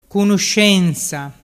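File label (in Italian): "cunuscensa", dialect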